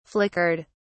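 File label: "flickered", British English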